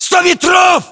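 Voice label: threat distance 100, battlechatter, Russian; localized english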